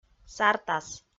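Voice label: "сартас", Komi, female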